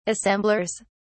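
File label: "assemblers", British English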